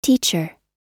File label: "teacher", us